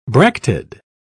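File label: "bracted", English, British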